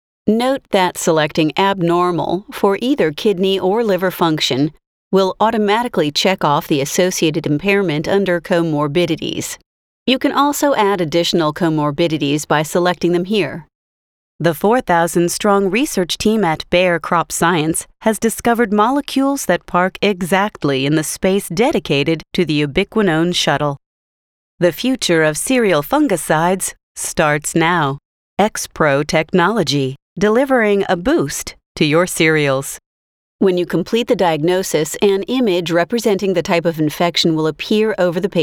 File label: Medical Narr